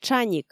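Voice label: "тшаник", Komi, female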